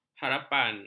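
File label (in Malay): "harapan", Malay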